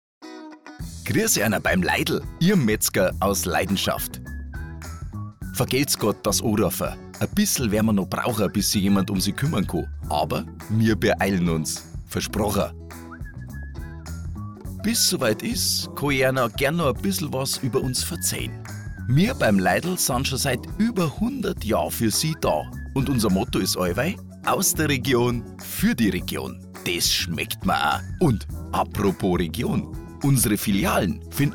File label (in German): Telefonansage Metzgerei; Metzgerei Leidl